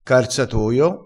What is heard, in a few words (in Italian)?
"carzatoio", crespinese